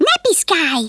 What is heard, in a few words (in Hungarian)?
"leave me alone", Hungarian; Worms Armageddon